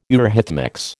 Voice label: "eurhythmics", American